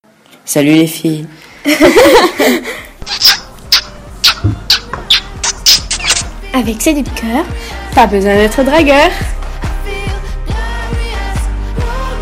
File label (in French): pub complete Musique